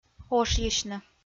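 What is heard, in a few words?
"ошйысьны", Komi-Zyrian, female; audio dict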